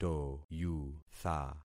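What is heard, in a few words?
"toyutha", concatenated syllables; Affixing experiment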